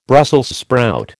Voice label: "Brussels sprout", American

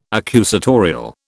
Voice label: "accusatorial", British

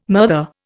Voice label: "murther", Indian

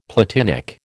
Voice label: "platinic", American

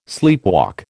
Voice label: "sleepwalk", American

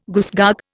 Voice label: "goosegog", Indian

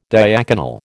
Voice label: "diaconal", American

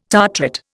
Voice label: "tartrate", Indian

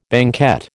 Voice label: "banquette", American

